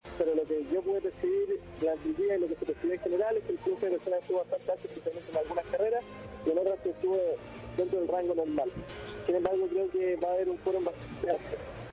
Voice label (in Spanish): cuña participación